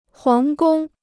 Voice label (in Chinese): "皇宫", Chinese